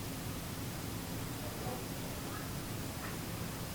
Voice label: faint voice hello; shelton hotel

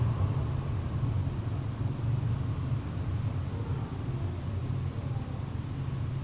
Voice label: small meandering voice

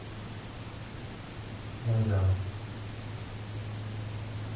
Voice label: mans voice; shelton hotel

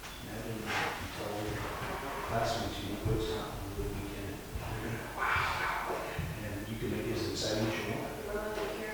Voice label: odd gruff whisper

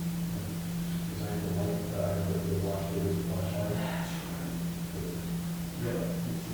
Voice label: loud whisper; shelton hotel

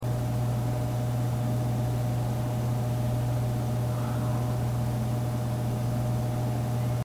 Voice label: lobby whisper

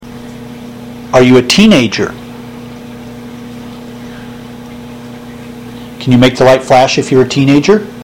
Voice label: office whisper

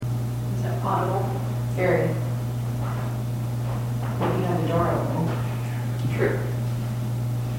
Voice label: lobby whisper

